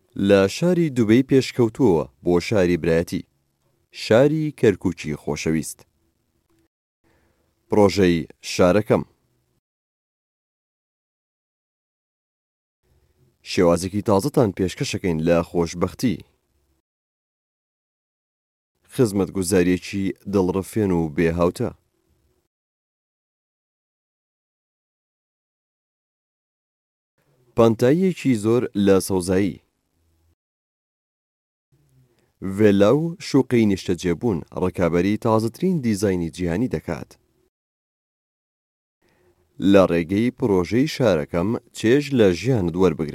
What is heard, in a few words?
kurdish sorani male